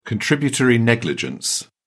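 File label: "contributory negligence", British English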